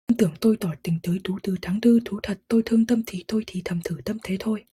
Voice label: vietnamese fast; phon lab

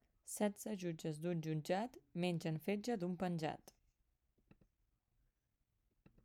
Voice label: catalan slow; phon lab

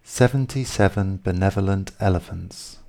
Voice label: english seventy slow; phon lab

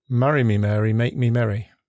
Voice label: english mary; phon lab

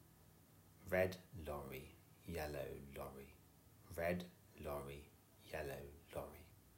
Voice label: english lorry slow; phon lab

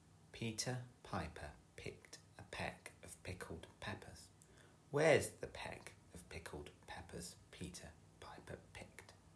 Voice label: english peter slow; phon lab

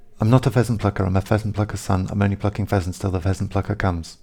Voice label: english pheasant fast; phon lab

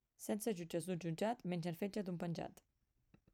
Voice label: catalan fast; phon lab

